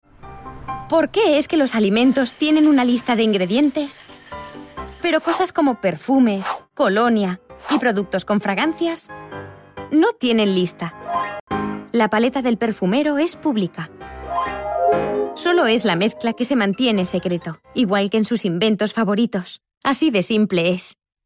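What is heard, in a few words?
Spanish video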